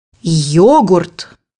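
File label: "yogurt", Russian; bukvar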